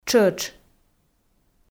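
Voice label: "тшӧтш", Komi, female; audio dict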